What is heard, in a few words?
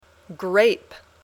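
"grape", English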